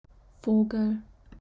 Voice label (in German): "Vogel", German